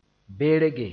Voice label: "berge", Mooré